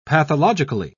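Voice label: "pathologically", British English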